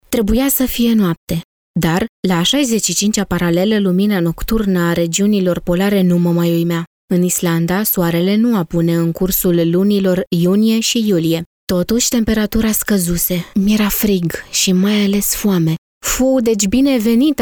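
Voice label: RO F AudioBook, Romanian, female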